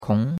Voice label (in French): "kong2", chinois; Cours de Chinois